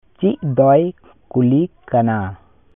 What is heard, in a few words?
Santali; What are the questions?